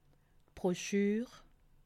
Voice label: "broschur", alsace

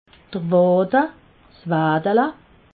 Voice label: "wade", alsace

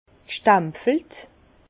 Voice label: "stempfelt", Bas Rhin; vin; sigillée

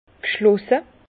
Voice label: "gschlosse", Bas Rhin; vin; fermé

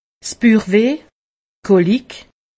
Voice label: "buchweh", alsace; medecine

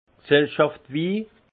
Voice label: "gsellschaftswi", alsace; vin; vin de collectivité

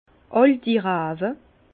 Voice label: "alti rawe", Bas Rhin; vin; vieilles vignes

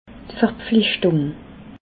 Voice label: "verpflichtung", alsace

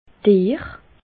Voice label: "deer", alsace

